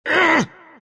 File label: anger; dota; omniknight